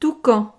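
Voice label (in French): "toucan", French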